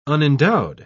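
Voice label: "unendowed", British English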